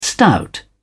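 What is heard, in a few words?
"stout", British English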